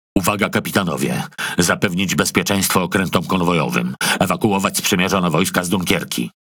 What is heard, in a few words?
MAIN TASK PHRASE, Languages PL; PVE; World Of Warships